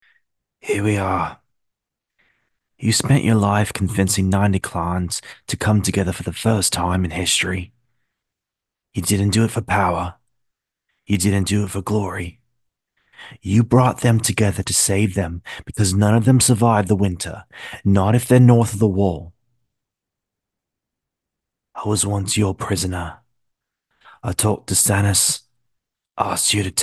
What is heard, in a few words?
Impression; John Snow